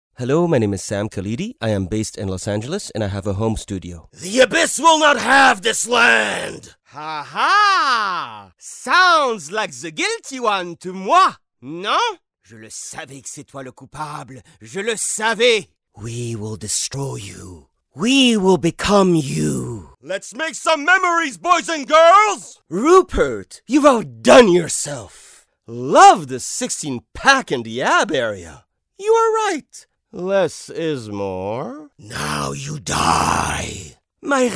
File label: animation; gnc